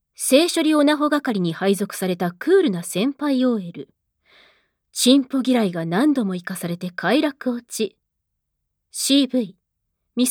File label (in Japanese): タイトルコール